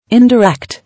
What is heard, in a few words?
"Indirect", English